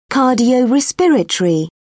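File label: "cardiorespiratory", British English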